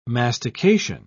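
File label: "mastication", British English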